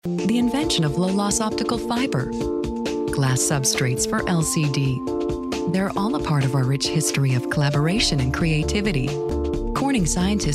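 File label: Narration Female, Warm, Sultry, Professional, Friendly, Natural, Corporate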